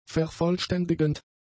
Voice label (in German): "vervollständigend", German; adjectives